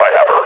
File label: announce attack, combine grunt; alyx